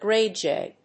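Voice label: "gray jay", English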